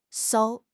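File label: "艘", Mandarin Chinese; HSK6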